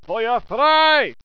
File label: evil sci alert